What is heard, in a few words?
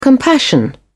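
"compassion", British English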